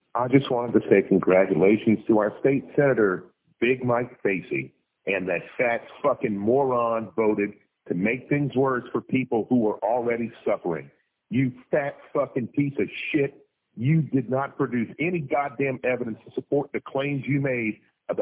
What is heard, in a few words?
voice mail; vicious voice mail to a state senator